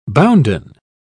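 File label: "bounden", British English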